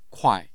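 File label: "kuai", Mandarin Chinese, tone 4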